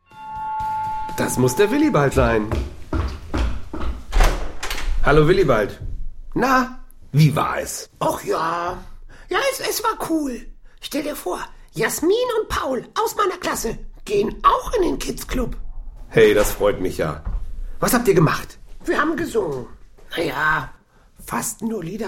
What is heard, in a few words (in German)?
Hoerspiel; Gott hat ein weiches Herz